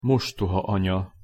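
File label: "mostohaanya", Hungarian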